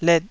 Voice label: "let2", Taiwanese

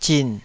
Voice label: "zin3", Taiwanese syllable

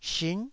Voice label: "shin9", taiwanese Syllables

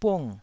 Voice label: "bung4", taiwanese

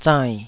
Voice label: "zainn3", taiwanese syllables

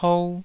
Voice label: "pou2", taiwanese

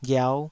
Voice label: "ghiau5", Taiwanese syllable

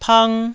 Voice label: "pang1", taiwanese

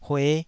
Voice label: "hue5", taiwanese

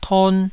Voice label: "ton2", taiwanese Syllables

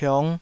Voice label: "piong5", taiwanese

